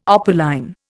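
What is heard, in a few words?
"opaline", Indian English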